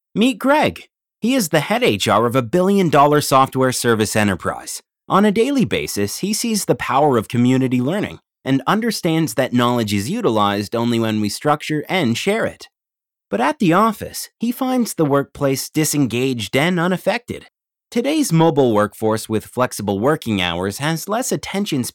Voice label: explainer